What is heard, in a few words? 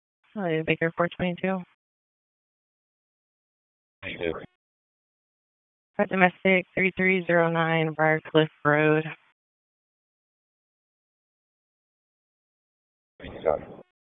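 Raleigh Police Southeast District Dispatch; wake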